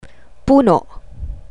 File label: "puno", Tagalog; plants